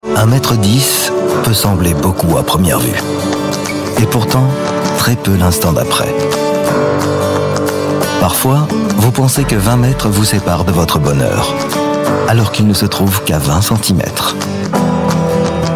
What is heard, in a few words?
french voice over male commercial